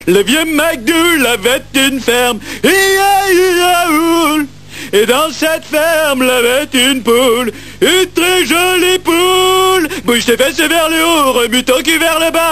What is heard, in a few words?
chicken french; children; homer